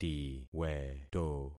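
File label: "tiweto", concatenated syllables; Affixing experiment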